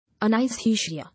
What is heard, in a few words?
"Anasthesia", English